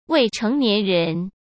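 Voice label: "未成年人", Mandarin Chinese; chinese flashcards; hsk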